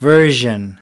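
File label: "version", English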